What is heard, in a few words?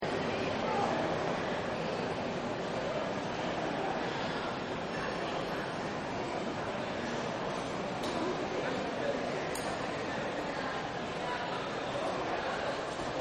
Train Station Announce, Pa Announcements; Voice